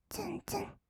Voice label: ツンツン, kawaii voice; ASMR; 色々なワンショット

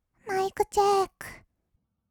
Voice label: マイクチェック, kawaii voice; ASMR; 色々なワンショット

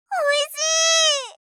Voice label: "美味しい", 相づち・反応系【ポジティブ】, ASMR

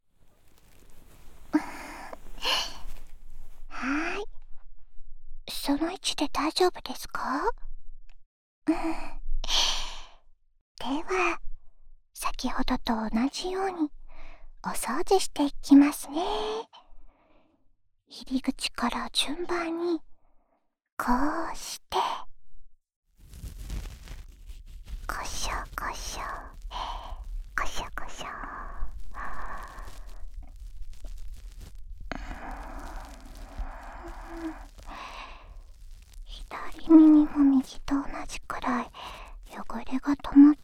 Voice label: 左耳の耳かき, ASMR; 今度はこっちのお耳を……

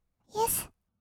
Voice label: "イェス", kawaii voice; 1200 kawaii voice!!; 色々なワンショット

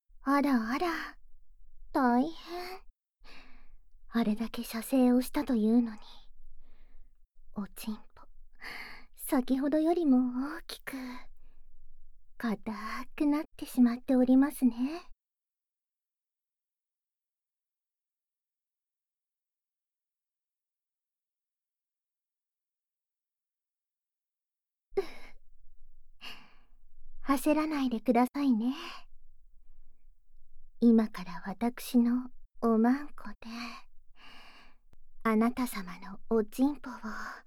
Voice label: 甘囁き・とろとろ耳舐め・両耳舐めセックス 連続射精（効果音なし）; 夜伽…連続射精

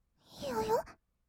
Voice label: "よよっ？", kawaii voice; ASMR; 色々なワンショット

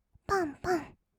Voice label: ぱんぱん, kawaii voice; ASMR; 色々なワンショット

